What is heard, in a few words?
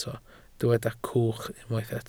"cwch", Welsh